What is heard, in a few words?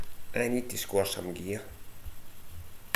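"score", slang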